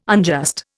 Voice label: "unjust", Indian